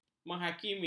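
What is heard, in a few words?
"menghakimi", Malay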